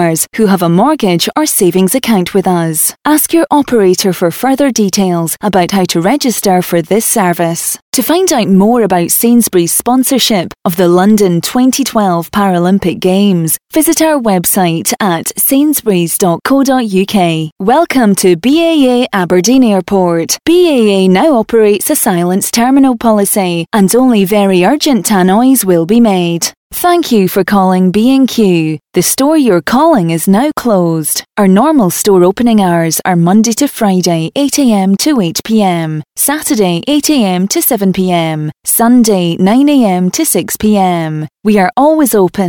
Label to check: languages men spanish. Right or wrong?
Wrong